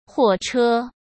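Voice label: "货车" Mandarin Chinese; chinese-flashcards; hsk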